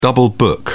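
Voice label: "double book", British English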